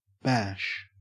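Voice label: "bash", Australian English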